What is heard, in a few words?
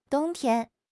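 "dong1 tian1", Mandarin Chinese; HSK3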